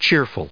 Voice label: "cheerful"